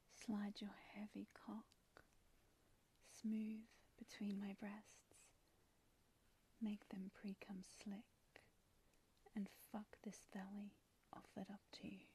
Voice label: Erotic Poetry; F4M; Slide Smooth